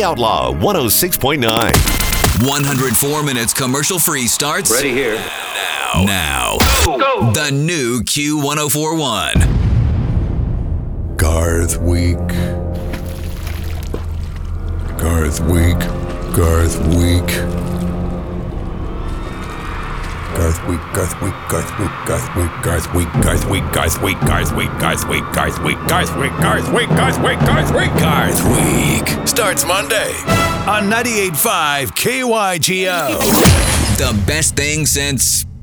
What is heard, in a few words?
country imaging